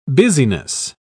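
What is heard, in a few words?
"busyness", English, British English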